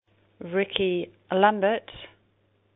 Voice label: UK; Rickie Lambert; mundial2014